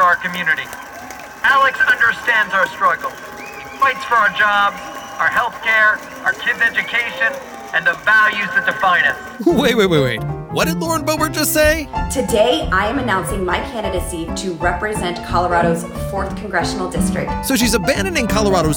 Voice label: Republican Political Ads